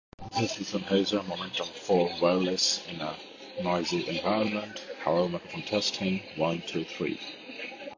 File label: noisy; Momentum 4